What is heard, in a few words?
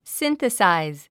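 "synthesize", English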